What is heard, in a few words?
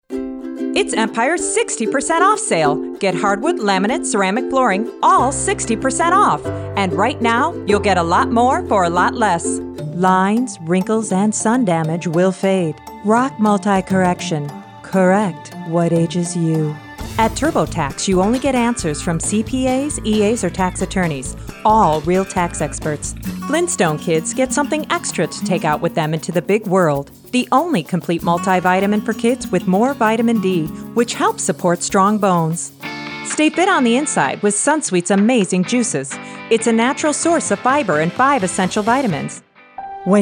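voice over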